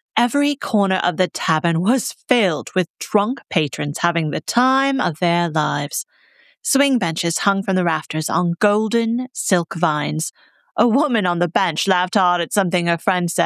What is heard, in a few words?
Fantasy Narration